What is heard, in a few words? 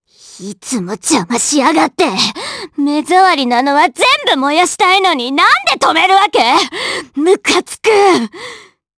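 vox dia Japanese; Pansirone; kingsraid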